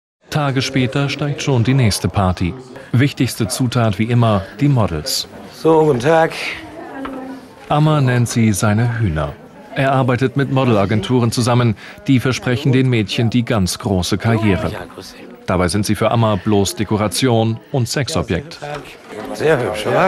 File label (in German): reportage